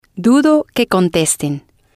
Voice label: dudo que contesten, Spanish

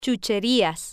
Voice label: "chucherias", spanish

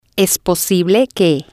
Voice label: es posible que, Spanish

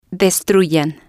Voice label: destruyan, Spanish

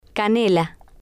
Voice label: "canela", spanish

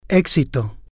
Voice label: "exito", Spanish

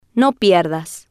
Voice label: no pierdas, Spanish

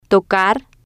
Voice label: "tocar", Spanish

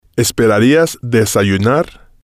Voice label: esperarías desayunar, Spanish

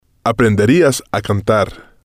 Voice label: aprenderías a cantar, Spanish

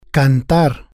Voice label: "cantar", Spanish

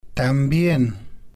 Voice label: "también", Spanish

